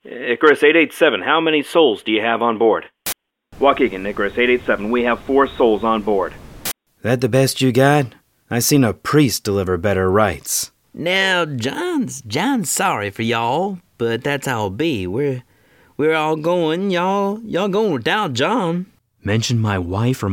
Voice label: character